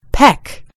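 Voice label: "peck", British English; gcompris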